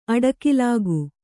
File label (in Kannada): "ಅಡಿಗಲ್ಲಿಕ್ಕು", Kannada